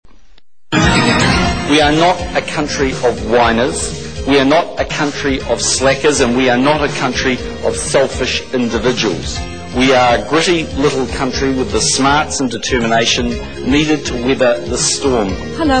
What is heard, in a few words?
rnz news; checkpoint